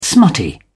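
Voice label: "smutty", British English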